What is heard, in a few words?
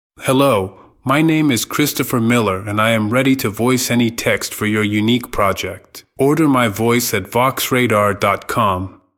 UK, voiceover; uk voiceover artist